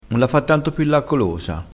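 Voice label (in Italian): "pillaccolosa", dialettale, crespinese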